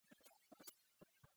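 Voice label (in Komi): рытъявылыс кобӧма, Komi, female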